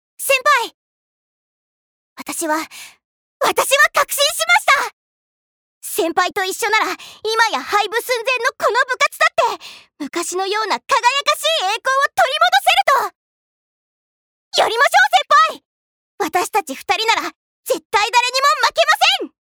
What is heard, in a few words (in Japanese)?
アニメ